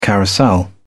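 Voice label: "carousel", British English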